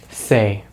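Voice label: "say", American English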